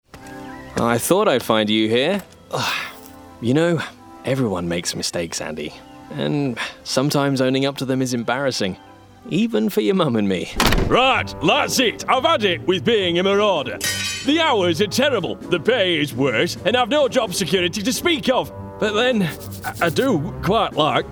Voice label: animation, voiceover; Animation Showreel

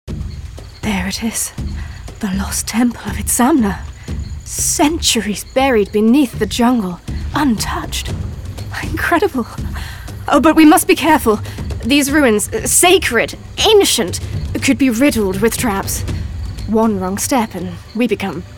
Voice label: character, voiceover

